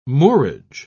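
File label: "moorage", British English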